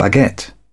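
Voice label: "baguette", British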